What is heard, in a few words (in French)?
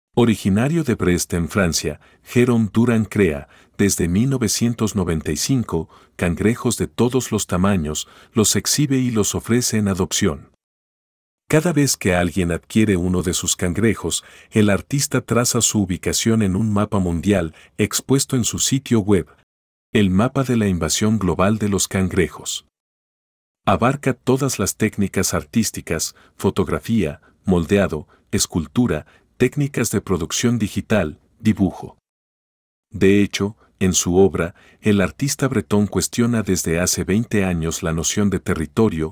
espagnol